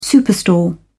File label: "superstore", en uk